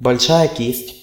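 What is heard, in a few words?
paintbrush large description Russian; tuxpaint-stamps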